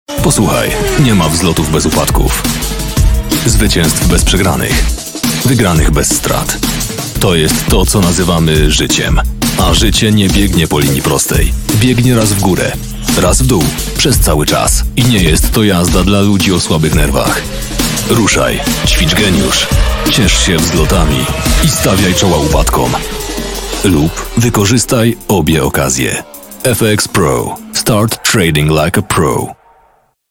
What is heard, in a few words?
polish others